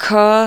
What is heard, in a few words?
"kha", marathi